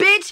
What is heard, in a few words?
"bitch", expletives